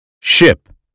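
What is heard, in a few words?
"ship", American English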